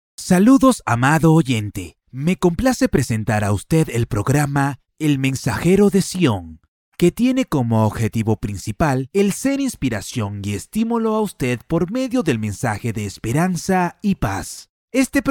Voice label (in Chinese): 西班牙语男, 外语